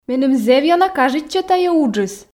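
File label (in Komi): меным зэв ёна кажитчӧ тайӧ уджыс, Komi, female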